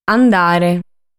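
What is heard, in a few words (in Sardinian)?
"Andare", Sardinian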